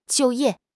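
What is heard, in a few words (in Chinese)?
"就业", Chinese; HSK6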